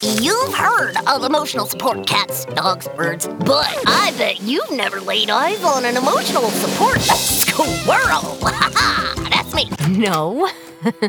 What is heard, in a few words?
Animation